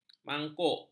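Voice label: "mangkuk", Malay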